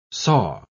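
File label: "saw", English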